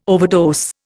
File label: "overdose", Indian